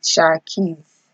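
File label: "shakis", Nigerian Pidgin, female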